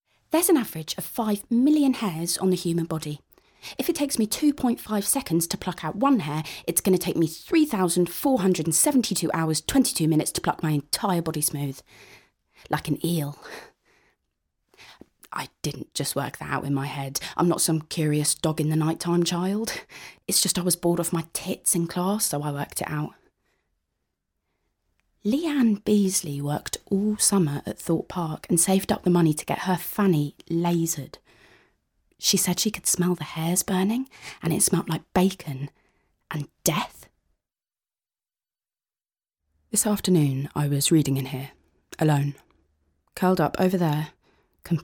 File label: Audiobook